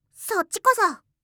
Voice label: "socchikoso", voice

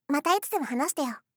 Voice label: "mata hanashite yo", voice, game; kiku

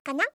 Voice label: "kana", voice; kiku

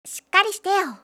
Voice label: shikarrishite, kanjou

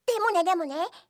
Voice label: "demo ne demo ne", voice; kiku

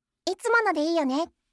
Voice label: "itsumo no de iiyo ne", voice, game; kiku

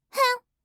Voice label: "fun", kanjou

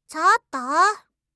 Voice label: "chottoo", reaction, voice

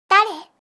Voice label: "dare", voice

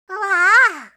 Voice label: uwaa, reaction

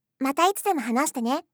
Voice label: "mata hanashite ne", voice, game; kiku

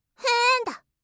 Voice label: "fuunda", voice

